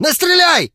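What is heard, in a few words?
surrender, Ukrainian; combat; human; monsters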